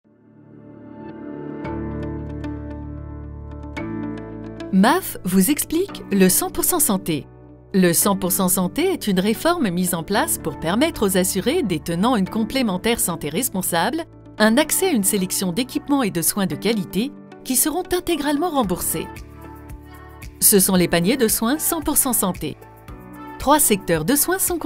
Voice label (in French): Voix off video; Maaf